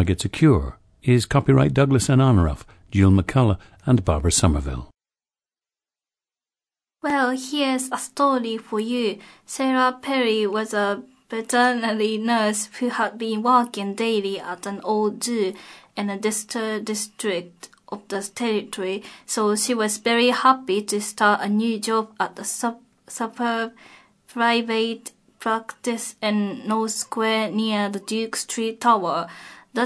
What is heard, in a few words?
japan